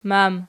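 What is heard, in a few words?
"mam" nominative, Archi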